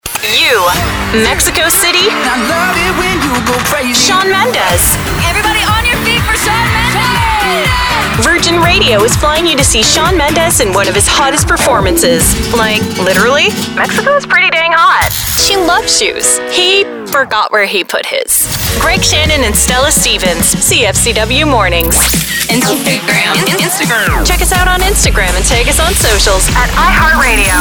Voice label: Imaging

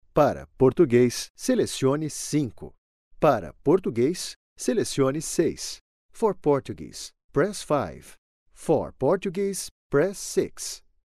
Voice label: IVR PORTUGUESE AND ENGLISH

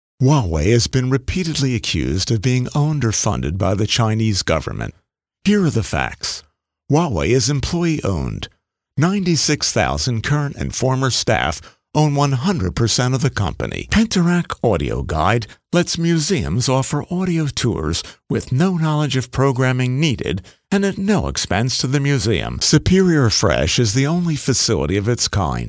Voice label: narration and e-learning dry

